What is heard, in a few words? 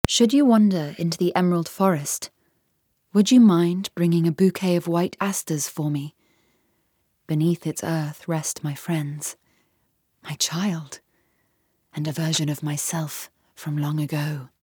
voice en; LadyNifle